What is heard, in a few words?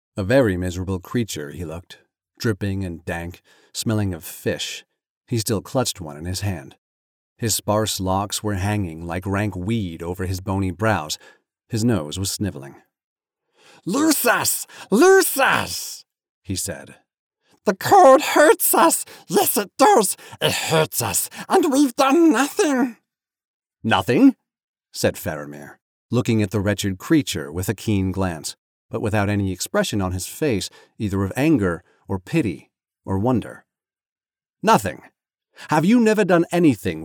Voice Actor Fiction Fantasy British Character